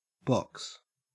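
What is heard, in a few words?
"box", Australian English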